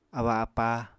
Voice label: "abba-apá", apatani